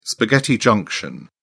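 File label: "spaghetti junction", English, British English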